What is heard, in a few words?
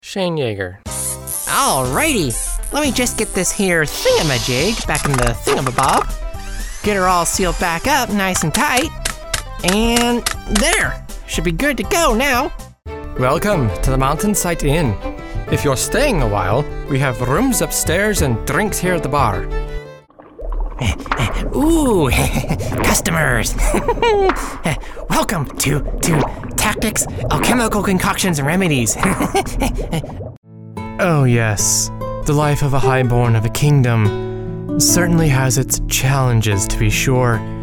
Character; Demo Reel